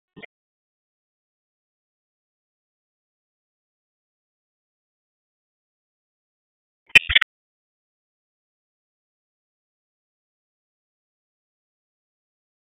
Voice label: Police North District Tac 1, p25; Raleigh; wake